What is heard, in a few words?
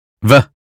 us phonetics sound very; 英语音标发音（剑桥版）